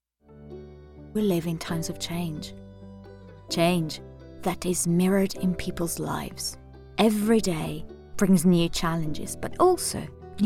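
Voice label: Italian, English accent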